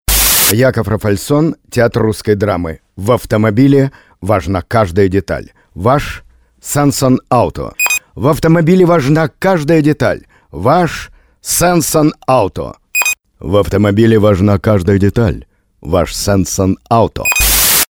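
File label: russian male commercial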